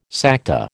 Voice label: "Sakta", American